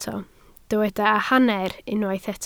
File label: "hanner", Welsh